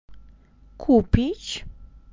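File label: "kupić", Polish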